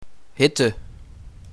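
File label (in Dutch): "Hitte", Dutch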